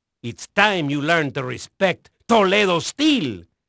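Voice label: Spanish male, voice; Pirates Of The Caribbean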